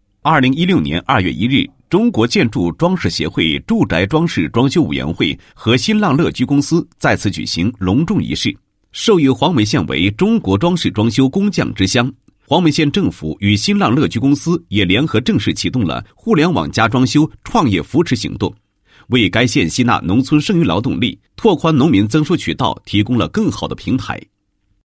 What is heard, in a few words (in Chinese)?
男 高音 宣传广告; 国语男声配音